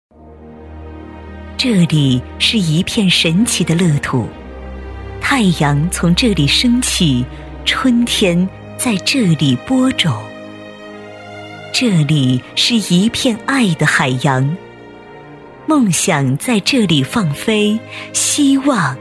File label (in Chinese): 女, 大气悠扬; 学校专题; 幼儿园; 温和抒情